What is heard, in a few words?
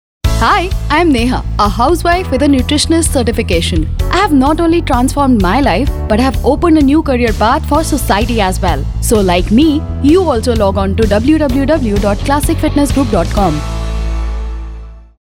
Classic Fitness Radio Spot